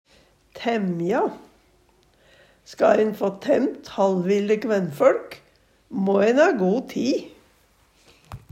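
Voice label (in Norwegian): tæmja, Numedalsmål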